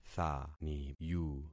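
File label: "thaniyu", concatenated syllables; Affixing experiment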